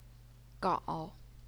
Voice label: "gao3", chinese pinyin